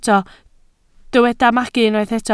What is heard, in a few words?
"magu", Welsh